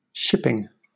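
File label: "shipping", British English; pronunciations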